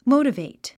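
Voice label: "motivate", English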